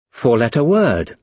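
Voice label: "four-letter word", British English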